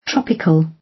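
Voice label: "tropical", British English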